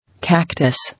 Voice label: "Cactus", English

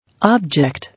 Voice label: "Object", English

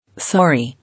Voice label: "Sorry", English

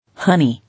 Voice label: "Honey", English

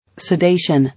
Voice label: "Sedation", English

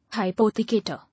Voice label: "Hypothecator", English

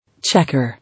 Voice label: "Checker", English

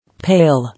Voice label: "Pale", English

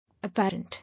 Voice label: "Apparent", English

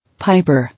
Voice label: "Piper", English

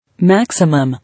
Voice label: "Maximum", English

